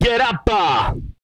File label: get up, Vocal, R&B Dance, hip-hop; TB HIP-HOP